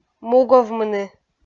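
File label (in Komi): "муговмыны", Komi, female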